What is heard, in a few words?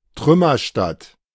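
"Trümmerstadt", German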